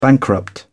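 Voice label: "bankrupt", British English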